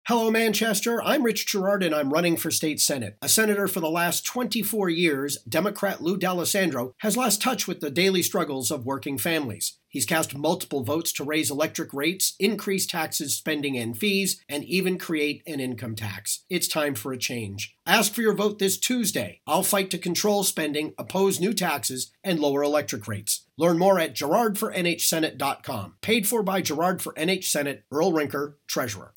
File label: Radio Spot; Taxes